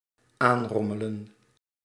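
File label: "aanrommelen", Dutch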